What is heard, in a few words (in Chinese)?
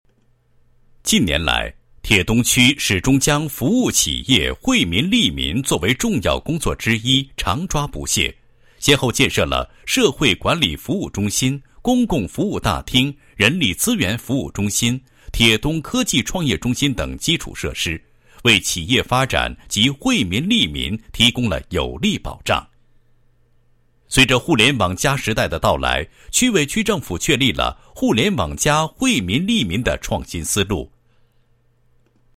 男 房地产专题; 铁东区首届 互联网 房展会